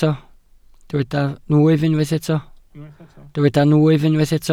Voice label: "nwyf", welsh